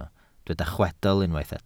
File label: "chwedl", Welsh